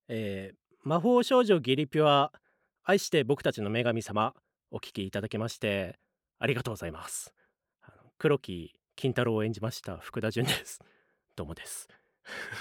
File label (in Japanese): フリートーク